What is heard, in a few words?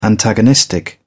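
"antagonistic", British English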